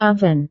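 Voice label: "oven", Indonesian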